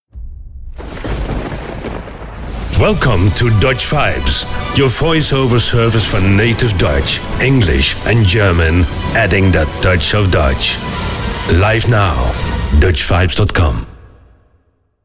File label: Trailer